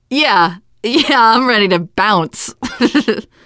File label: answer ready, teen girl